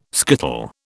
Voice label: "skittle", British

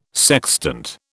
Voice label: "sextant", British

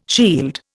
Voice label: "shield", Indian

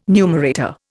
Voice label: "numerator", Indian

